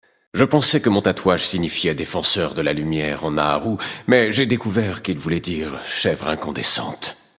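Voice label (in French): Male Flirt, voix françaises; Draenei Sancteforge; Naaru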